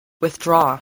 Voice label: "withdraw", voice, english